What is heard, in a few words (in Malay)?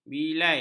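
"bilai", Malay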